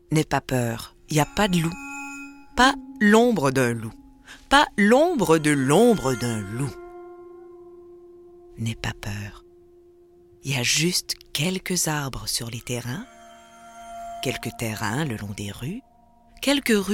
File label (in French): Narration Conte pour enfants